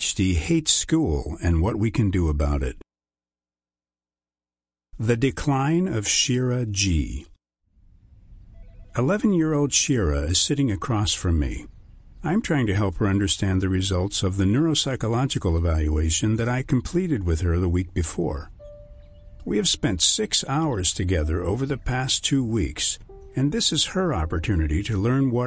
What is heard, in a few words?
Audio Book Textbook